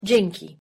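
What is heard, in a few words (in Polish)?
"dzięki", Polish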